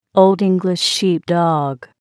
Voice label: "old English sheepdog", English